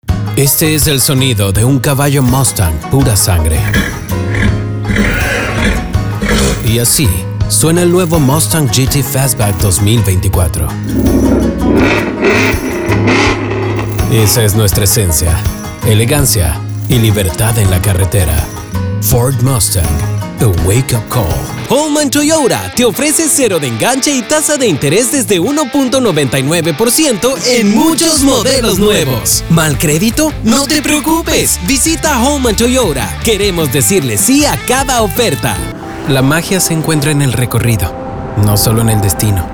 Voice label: spanish automotive